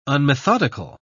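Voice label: "unmethodical", British English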